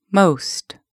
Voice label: "most", English